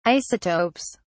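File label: "isotopes", British English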